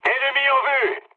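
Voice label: French enemy detected mood med; War Thunder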